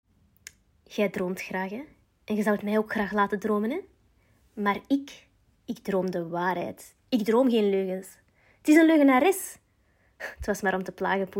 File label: Dutch Flemish language